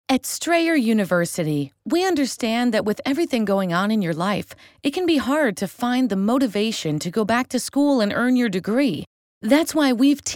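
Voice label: US, voice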